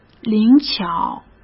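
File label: "灵巧", Mandarin Chinese; chinese-flashcards; hsk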